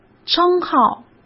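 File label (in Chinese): "称号", Mandarin Chinese; hsk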